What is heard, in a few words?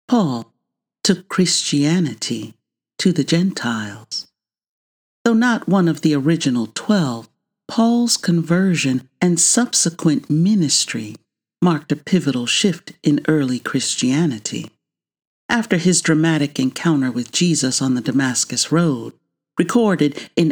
Narr; Apostles